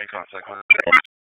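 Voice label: Police Spec Ops Tac, radio; Raleigh; Spec Ops Tac 6; wake; p25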